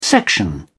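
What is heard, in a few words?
"section", British English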